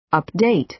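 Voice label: "update", American English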